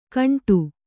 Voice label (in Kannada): "ಘಂಟೆ", Kannada